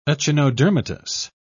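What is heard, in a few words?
"echinodermatous", British English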